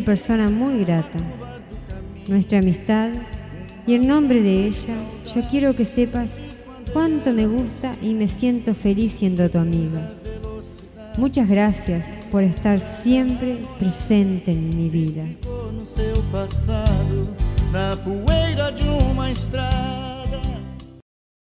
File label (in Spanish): AMIGA F, Espanhol; Fem; Variadas em Espanhol